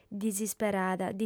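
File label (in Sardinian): "disisperada", female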